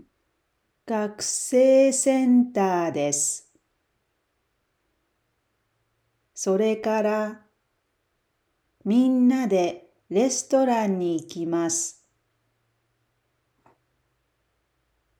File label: japanese Dialogue; 7.0 Expanding Your Japanese Toolkit (2)